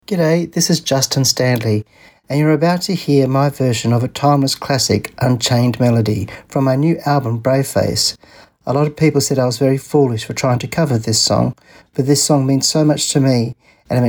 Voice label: radio intro long